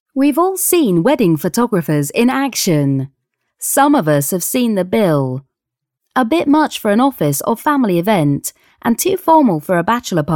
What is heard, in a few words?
female English British